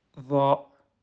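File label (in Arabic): "ظ", Arabic; quiz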